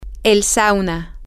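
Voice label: female, spanish; the Sauna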